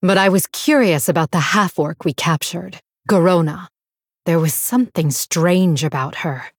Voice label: Female Blood Elf; Valeera; Pre Mission6

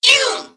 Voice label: Clockwork Robot Attack

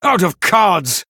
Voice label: Male Human No Cards

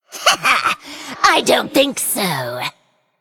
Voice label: Female Forsaken; Sneaky Adventurer; Guff Mission5 Exchange B

